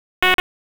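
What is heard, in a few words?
Police Spec Ops Tac, radio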